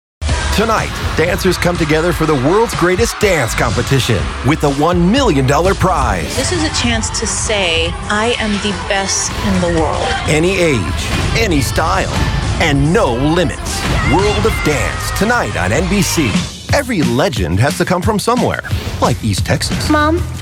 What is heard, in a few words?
Promos